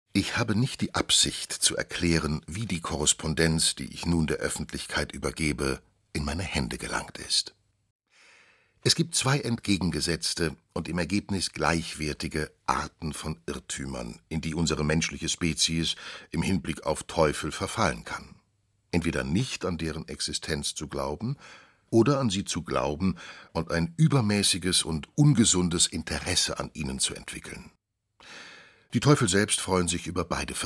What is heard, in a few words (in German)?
dienstanweisung hoerbuch